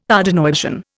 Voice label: "Tardenoisian", Indian